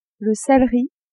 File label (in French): "celeri", French; legumes